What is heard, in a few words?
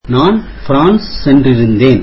tamil I visited France